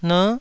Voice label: "ner9", taiwanese

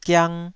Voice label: "giang2", Taiwanese syllable

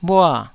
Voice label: "bhua4", taiwanese syllables; 台語音節發音檔案

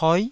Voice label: "poi9", taiwanese

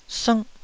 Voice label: "sngh4", taiwanese

